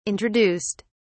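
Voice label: "introduced", English, uk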